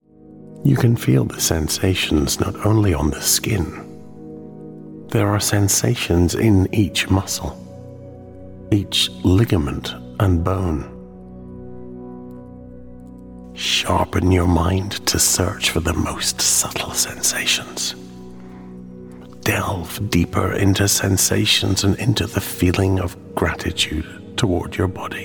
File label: english scottish others